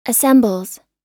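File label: "assembles", American English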